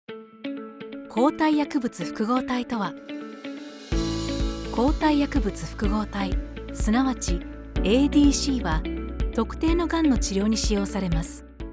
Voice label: japanese medical narration